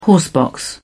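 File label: "horsebox", British English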